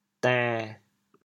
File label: "dên7", chaoyang